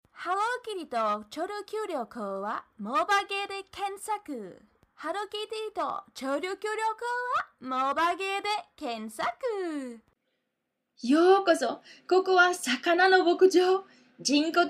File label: Japanese; Europe